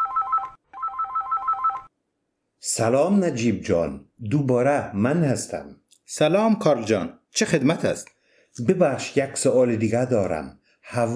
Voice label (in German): Dialog, Dari-Persisch